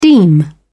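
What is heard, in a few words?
"deem", British English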